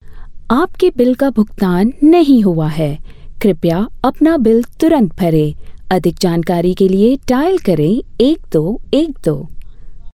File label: Hindi Female